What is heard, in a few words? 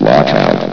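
"lockout", English, station announcer voice; S.P.L.U.R.T-Station-13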